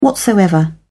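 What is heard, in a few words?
"whatsoever", British English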